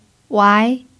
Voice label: "wai2", Mandarin pinyin